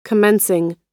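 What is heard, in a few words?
"commencing", US English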